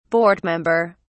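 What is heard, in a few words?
"board member", British English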